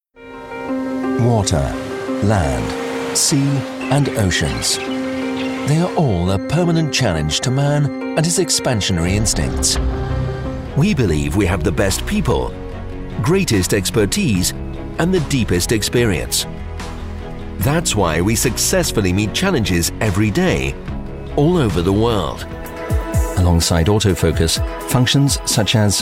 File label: english british narration